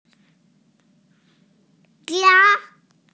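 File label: "jia1", Mandarin Chinese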